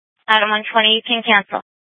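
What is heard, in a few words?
Sheriff, Parks, Sheriff radio scanner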